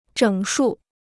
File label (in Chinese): "整数", Chinese